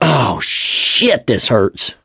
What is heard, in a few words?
going to die, survivor voice mechanic